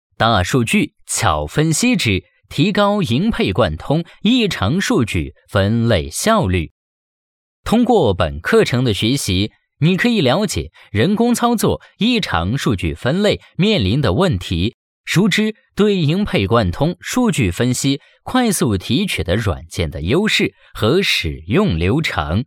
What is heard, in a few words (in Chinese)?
课件中年音; 图文动画脚本大数据巧分析